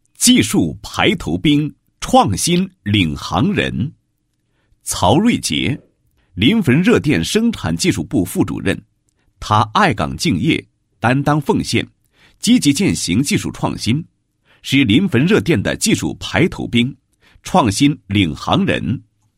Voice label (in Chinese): 男 人物 技术排头兵创新领航人